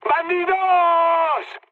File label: enemy detected, mood high, speech; War Thunder